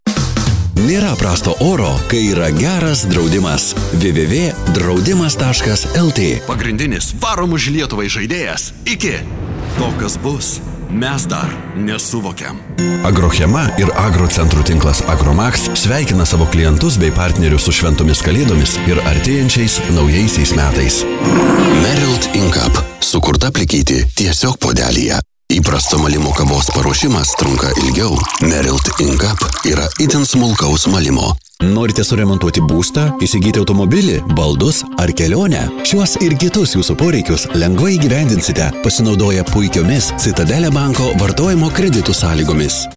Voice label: Litvanca Erkek, seslendirme

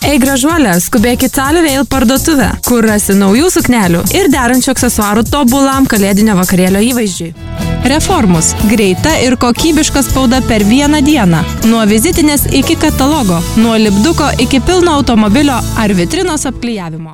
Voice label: Litvanca Kadin, seslendirme; Kadın Ses; kadin sesler

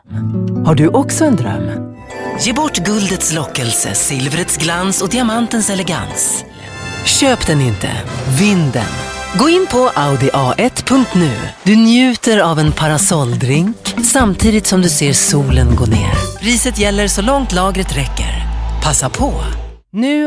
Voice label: Isvecce Kadin; seslendirme

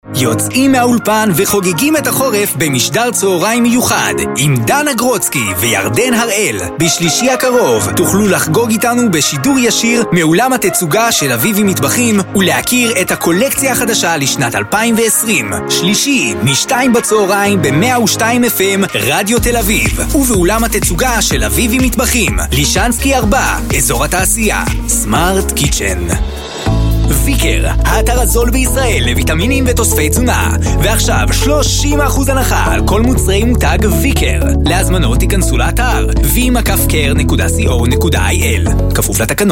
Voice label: İbranice Erkek Ses; seslendirme

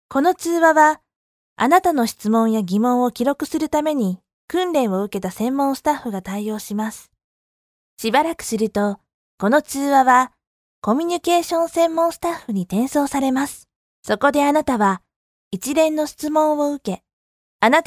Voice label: Japonca Kadin; seslendirme

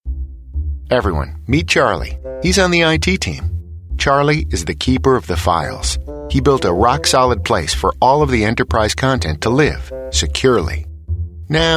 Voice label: Amerikan Ingilizcesi Erkek

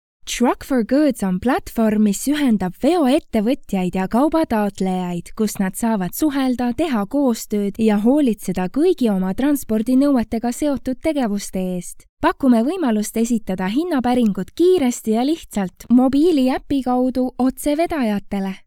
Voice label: Estonca Kadin

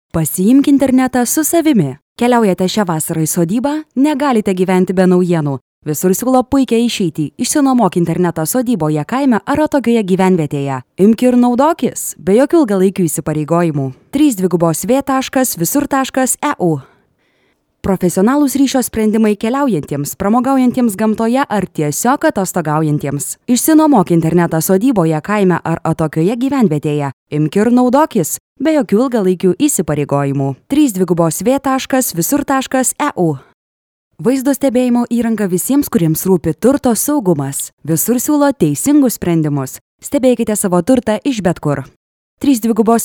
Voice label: Litvanca Kadin, Kadın Ses; litvanca seslendirme; kadin sesler

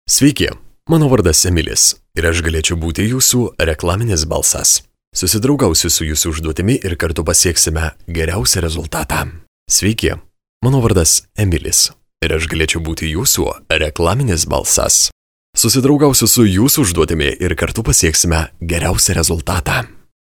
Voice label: Litvanca Erkek, Erkek Ses; litvanca seslendirme; erkek sesler

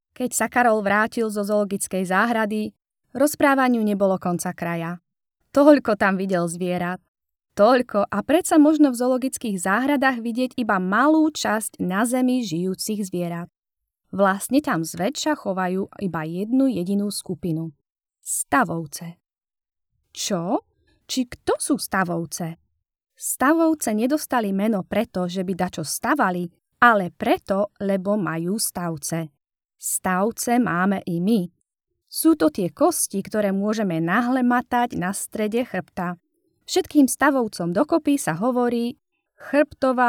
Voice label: Slovakca Kadin, slovakca seslendirme; kadin sesler

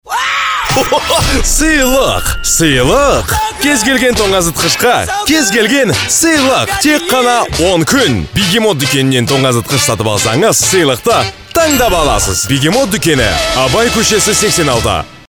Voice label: Kazakca Erkek, Erkek Ses

